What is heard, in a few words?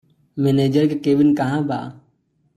Manager ka cabin kahan ba?, Bhojpuri